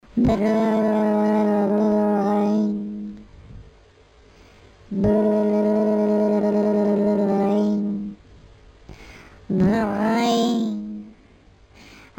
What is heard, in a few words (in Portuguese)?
celular bêbado